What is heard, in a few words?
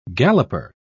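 "galloper", British English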